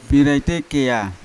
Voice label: "biraite kea", Yawa; cowrie; unat

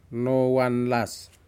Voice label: fish orangespot Surgeonfish, Aneityum

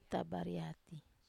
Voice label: "tabariati", Wamesa

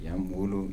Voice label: "big", Kibembe

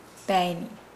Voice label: Nukuoro; lie down

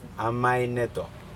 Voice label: chew sugarcane, Aneityum

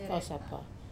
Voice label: "kausapa", Wamesa; tomorrow morning

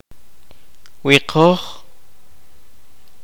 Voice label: kaqchikel; To decorate

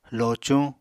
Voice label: "locuñ", Apatani

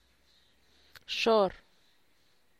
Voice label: what time, San Lucas Quiaviní Zapotec; (Spanish) a qué hora

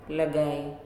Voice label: "Hungry", Nalik

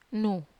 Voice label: "nu", Wamesa

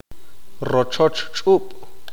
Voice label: "panal", Q'eqchi'

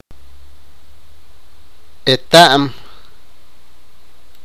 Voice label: sipakapense; know

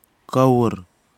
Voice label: birds Common myna, Kwamera

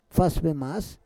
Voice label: "fas vemas", Roon

